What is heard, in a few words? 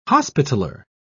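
"hospitaller", British English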